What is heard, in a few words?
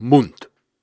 Syllables and Imaginary Words, Hard, Viking Vocal